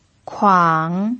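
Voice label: "kuang" third tone, pinyin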